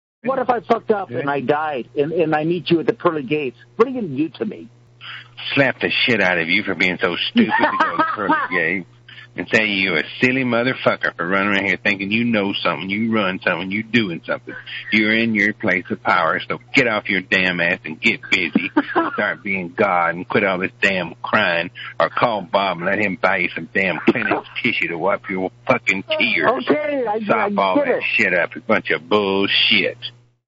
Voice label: rant